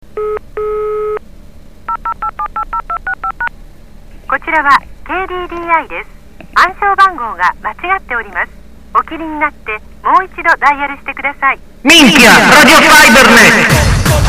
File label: jingle male